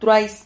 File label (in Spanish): "truais", Silabas